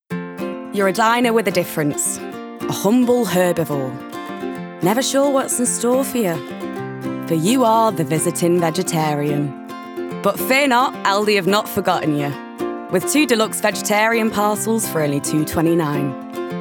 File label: Commercial, Bright, Light, Warm, Northern